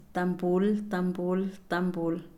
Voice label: tambor, Pame; HablayLenguaje; INALI

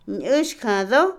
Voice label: Otomí, mujer; albañil; Casa; INALI

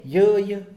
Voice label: "solamente", Mixe, hombre; Cantidad; INALI

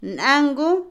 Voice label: vecino, Otomí, mujer; INALI

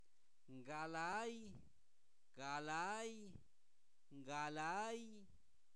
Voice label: en medio, Zapoteco, santo domingo albarradas; adverbios; INALI

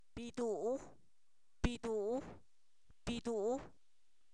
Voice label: plátano, Zapoteco, san miguel albarradas; comestible

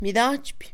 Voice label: Mixe, Mujer; obtener; Posesión; INALI

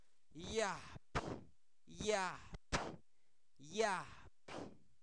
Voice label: chayote, Zapoteco, santo domingo albarradas; comestibles; INALI

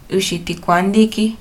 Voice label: Diez guayabas, Mixteco

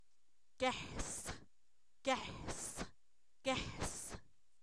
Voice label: Zapoteco, san miguel albarradas; olla; objetos inanimados

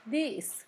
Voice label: chisme, Zapoteco, San Pedro Mártir; Sustantivos; INALI

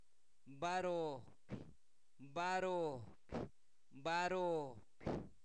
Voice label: Zapoteco Albarradas, santo domingo albarradas; INALI; numeros; segundo

